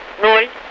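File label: Russian speech, Mi-8MT RI65; DCS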